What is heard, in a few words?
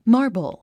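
"marble", English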